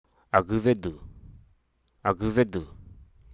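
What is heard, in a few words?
Hruso Aka; childish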